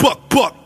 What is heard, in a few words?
"buck buck", ooh ahh